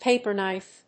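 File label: "paper knife", English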